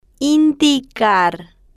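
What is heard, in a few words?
"indicar", Spanish, slow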